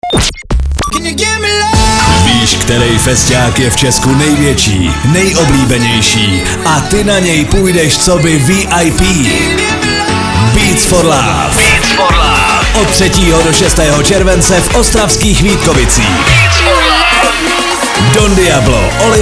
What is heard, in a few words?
promo; beats for love; evropa2